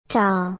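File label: "doll", English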